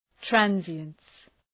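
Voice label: "transience", English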